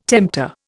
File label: "tempter", Indian